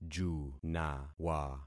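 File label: "junawa", concatenated syllables; Affixing experiment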